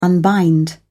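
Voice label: "unbind", British English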